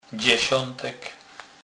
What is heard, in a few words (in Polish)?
"dzesatek", kurpiow